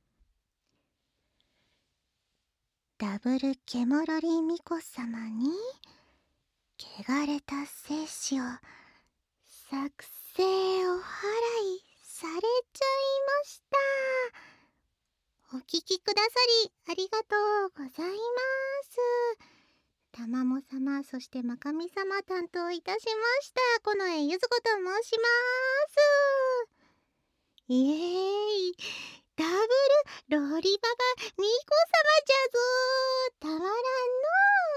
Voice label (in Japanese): フリートーク